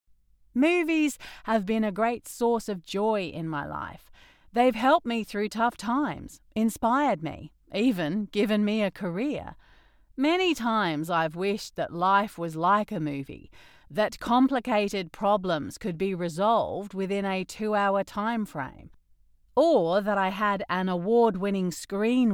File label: Biography Australian; GirlsFilm